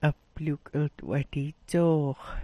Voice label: "'watidzoox", smalgyax